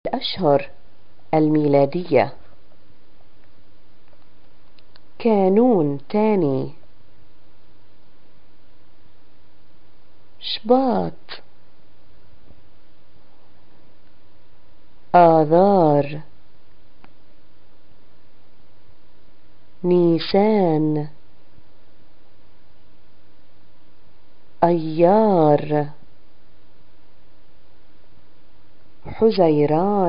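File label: months arabic, Palestinian Arabic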